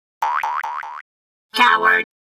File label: taunt, speech; Cyberworms